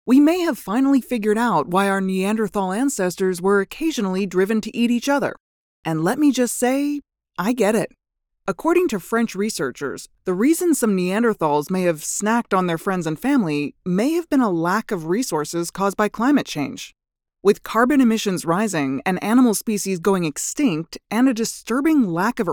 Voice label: narration sample comedic sarcasm tone